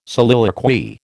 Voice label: "soliloquy", American

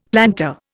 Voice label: "plantar", Indian; Dictionary

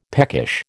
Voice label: "peckish", American

